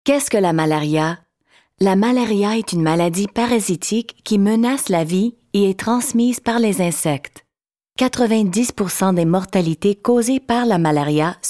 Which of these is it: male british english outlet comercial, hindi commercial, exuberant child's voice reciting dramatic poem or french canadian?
french canadian